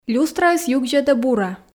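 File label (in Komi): люстраыс югзьӧдӧ бура, Komi, female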